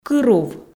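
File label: "Кырув", Komi, female; Кируль; (микрорайон г. Сыктывкар); (деревня в Сысольском районе); (деревня в Усть-Вымском районе)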